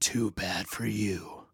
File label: Taunt Aww, Male